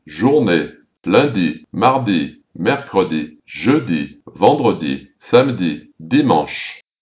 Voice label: french